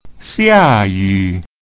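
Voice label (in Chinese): "xia yu", Mandarin Chinese pinyin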